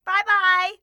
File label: "bye bye", voices